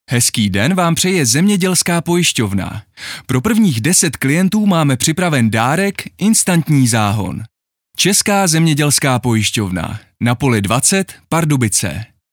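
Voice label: Commercial, voice over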